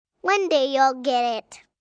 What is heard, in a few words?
One Day Youll Get It, Adult Phrase, 1 child, 3 yrs